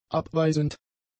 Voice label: "abweisend", german; adjectives

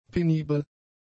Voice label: "penibel", German; adjectives

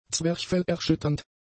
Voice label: "zwerchfellerschütternd", German; adjectives

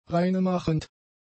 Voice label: "reinemachend", German; adjectives

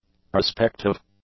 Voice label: "prospective", english; adjectives

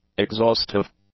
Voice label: "exhaustive", English; adjectives

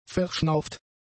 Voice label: "verschnauft", German; adjectives

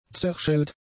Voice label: "zerschellt", German; adjectives

